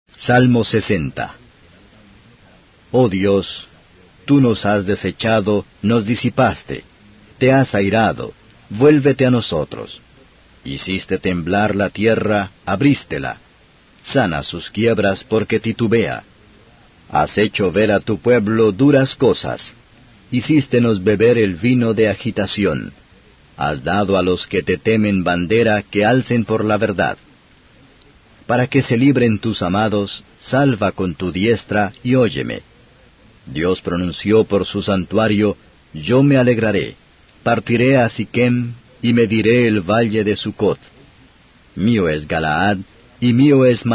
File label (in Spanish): salmos spanish